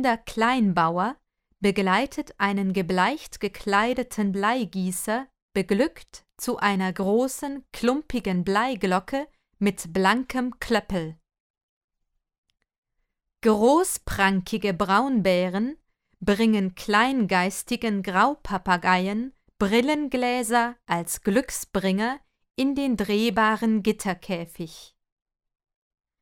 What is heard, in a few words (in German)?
harte und weiche Explosivlaute